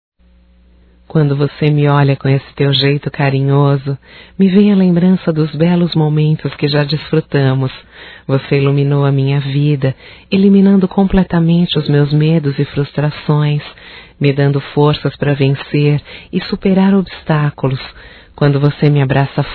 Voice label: Romântico Feminino

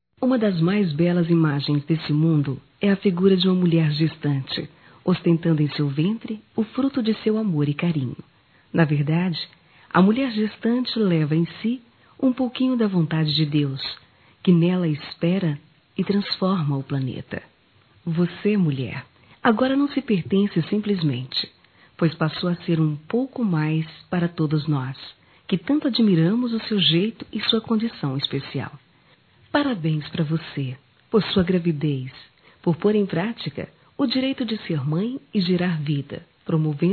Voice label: Mãe Gravida f, Telemensagens, Dia das Maes; Telemensagens 2017

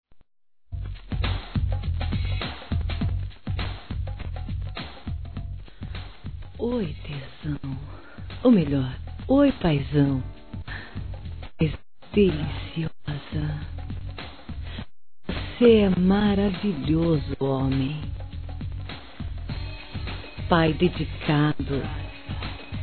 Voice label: EROTICA ESPOSA F; Telemensagens 2017

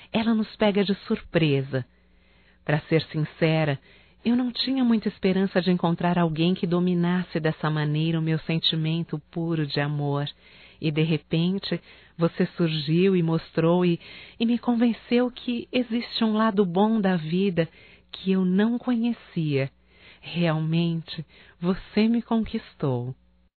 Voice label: CONQUISTA (F); Românticas

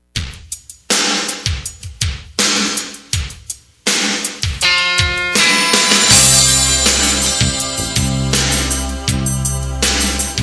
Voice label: Telemensagens Amor Masc; Telemensagens 2017

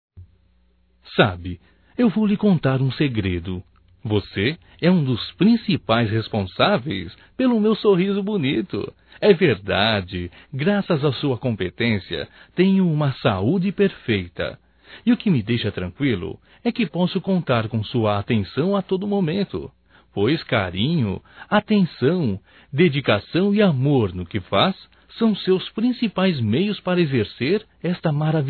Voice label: HOMENAGEM AO DENTISTA, masc; Homenagens; Telemensagens 2017

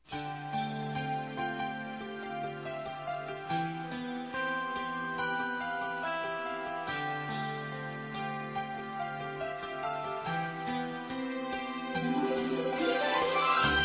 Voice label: ANIV. AVÓ CRIANÇA-F, Telemensagens; Aniversário Infantil

